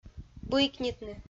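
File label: "быйкнитны", Komi, female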